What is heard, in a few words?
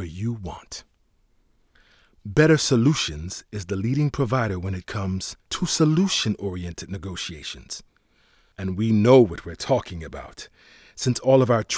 e-learning; LOFT VOICES